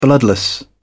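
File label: "bloodless", British English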